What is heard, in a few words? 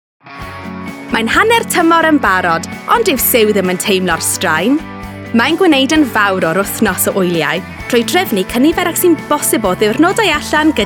Voice Reel WELSH